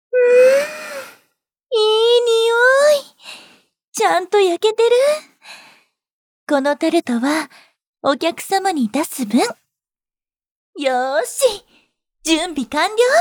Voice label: Voice Sample, jp